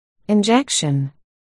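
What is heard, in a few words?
"injection", spoken word, articulation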